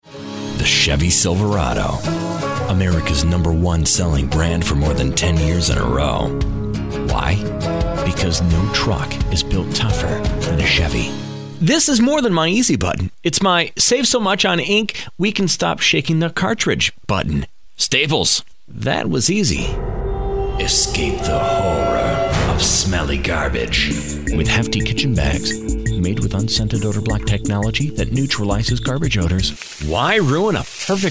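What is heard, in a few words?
US, commercial, voice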